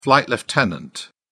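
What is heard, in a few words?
"flight lieutenant", British English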